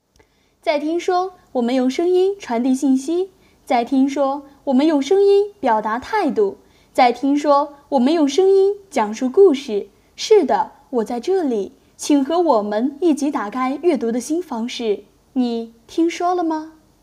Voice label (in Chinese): 讲述类